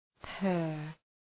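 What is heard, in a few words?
"purr", English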